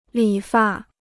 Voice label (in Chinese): "理发", Chinese